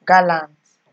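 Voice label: "gallant", Nigerian Pidgin, female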